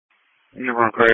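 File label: Police Patrol Dispatch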